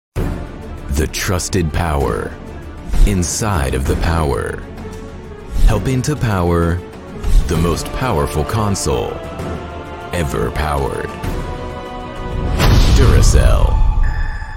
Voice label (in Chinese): 外籍英男-耐用电池宣传片